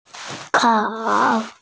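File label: "kao3", Mandarin Chinese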